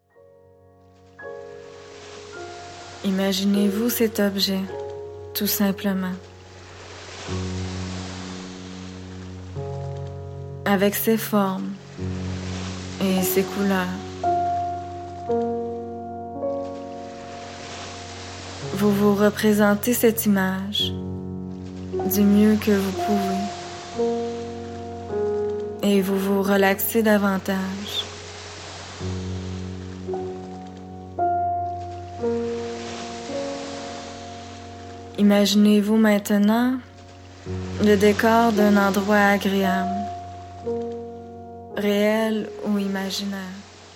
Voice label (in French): Relaxation sous hypnose